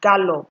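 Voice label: "gallop", Nigerian Pidgin, female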